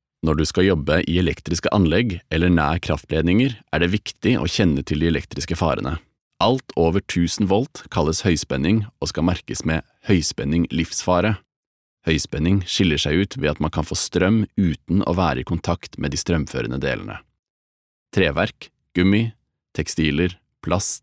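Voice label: no elearning, Norwegian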